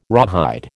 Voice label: "rawhide", American

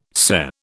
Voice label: "SCE", British

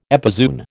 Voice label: "epizoon", American

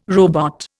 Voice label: "robot", Indian

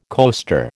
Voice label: "coaster", American

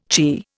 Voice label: "chirr", Indian

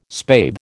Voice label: "spathe", American

